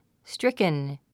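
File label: "stricken", English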